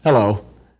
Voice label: "hello", voice; introductions